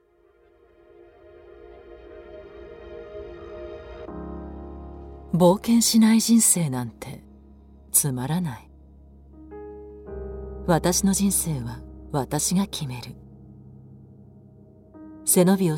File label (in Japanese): ochitsuita